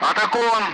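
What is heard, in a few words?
Speech, Russian, loud; Messages; Allied Flight; defending; DCS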